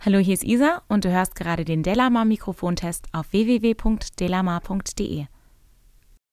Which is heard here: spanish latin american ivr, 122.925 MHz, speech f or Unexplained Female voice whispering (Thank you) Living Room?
speech f